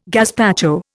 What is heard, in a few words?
"gazpacho", Indian